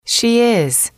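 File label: "she is", English, female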